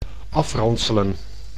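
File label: "afranselen", Dutch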